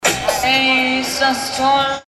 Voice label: octoberfest animateur